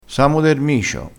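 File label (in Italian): "samo der micio", vocabolario dialettale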